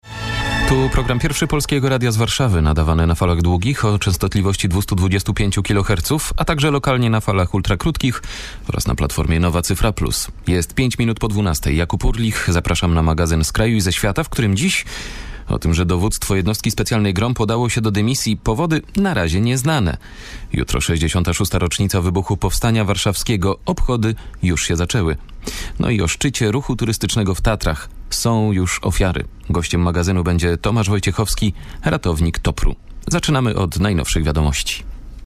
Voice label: Polish VO radio

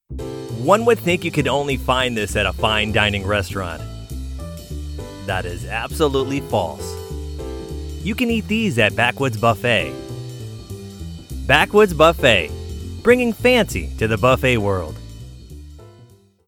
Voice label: backwoods buffet with music

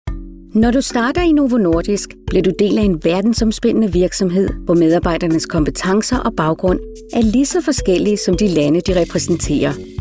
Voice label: Corporate Narration Danish

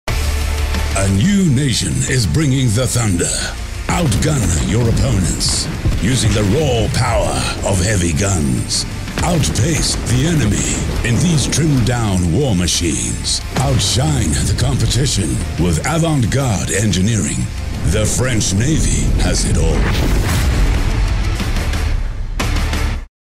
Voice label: French MIXED; Legends

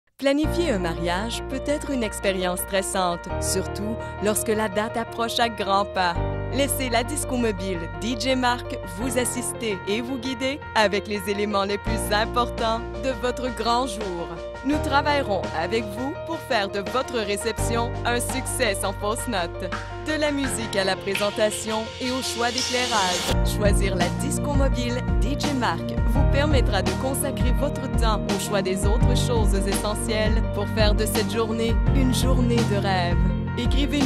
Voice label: Publicity corporatif

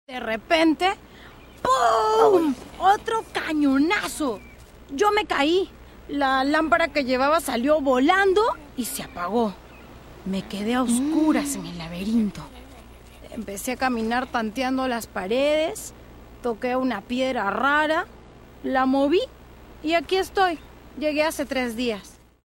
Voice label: Cartoon Kid of nine years

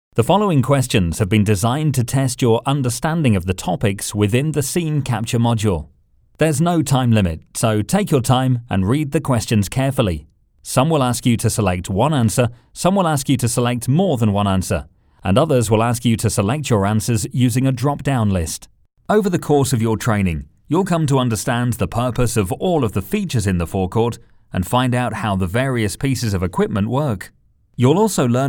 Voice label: E Learning

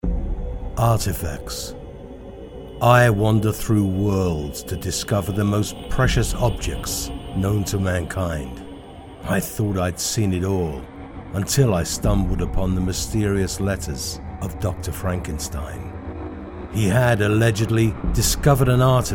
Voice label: with music; Frankenstein

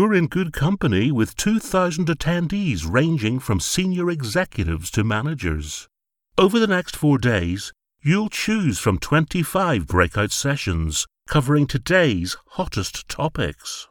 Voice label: Conference Announcer

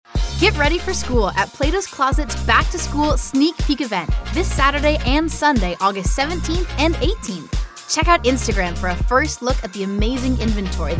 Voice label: with music; Platos closet

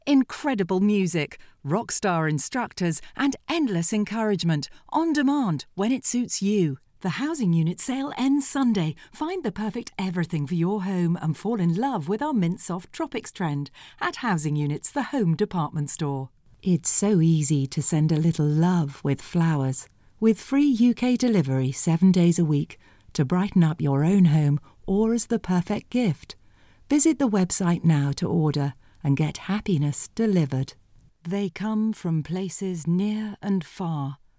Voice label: Commercial Examples

